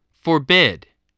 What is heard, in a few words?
"forbid", English voice, spelling